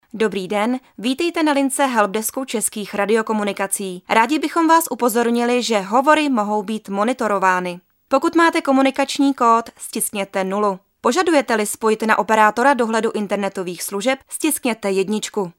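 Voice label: Female Czech IVR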